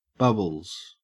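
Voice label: "bubbles", Australian English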